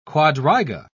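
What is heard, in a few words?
"quadriga", English, British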